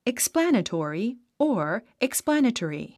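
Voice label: "explanatory", English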